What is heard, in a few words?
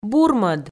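"бурмӧд", Komi, female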